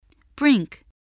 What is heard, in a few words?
"brink", spelling